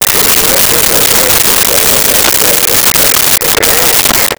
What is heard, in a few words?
Police Radio Chatter